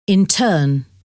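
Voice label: "in turn", English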